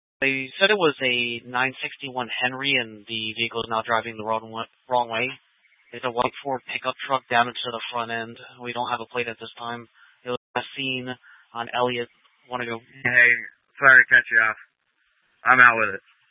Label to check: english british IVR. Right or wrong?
Wrong